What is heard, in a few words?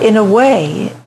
LoFi Words, LoFi Vocals